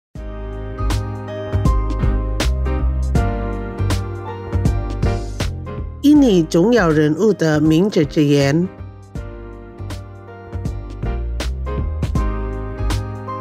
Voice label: MANDARIN QUOTE; Kata Bijak